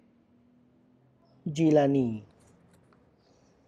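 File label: festival day, Tlacochahuaya Zapotec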